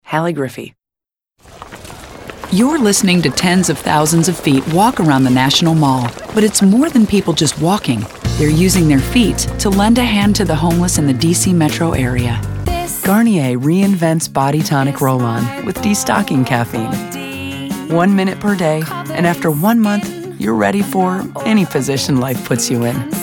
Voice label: F Commercial; voiceover womens